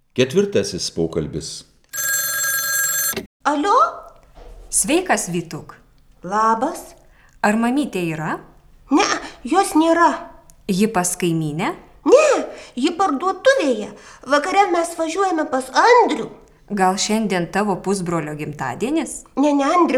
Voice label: Dialog